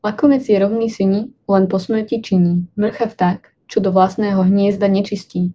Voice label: slovak